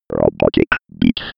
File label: old computer voice